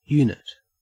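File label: "unit", Australian English; pronunciations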